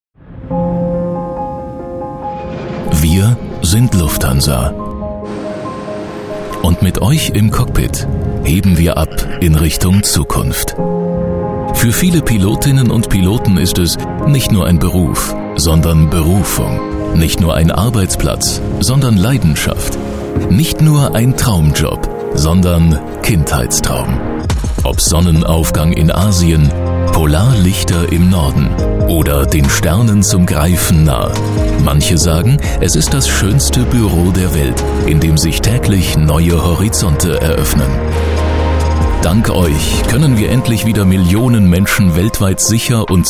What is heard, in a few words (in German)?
Imagefilm ruhig